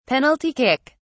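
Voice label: "penalty kick", English, British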